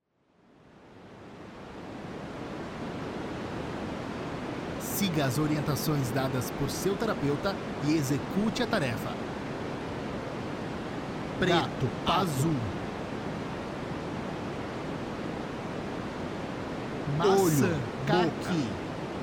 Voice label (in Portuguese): conjuntos 2 palavras white noise